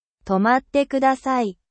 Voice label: japan